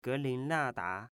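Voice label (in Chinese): "Gelinnada", Chinese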